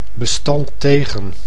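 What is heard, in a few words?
"bestand tegen", Dutch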